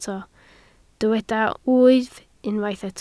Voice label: "wyf", Welsh